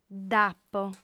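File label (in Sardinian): "dd'apo", female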